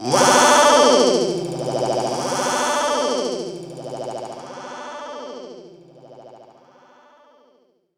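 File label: effects talk, Funky Vocals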